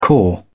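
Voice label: "caw", British English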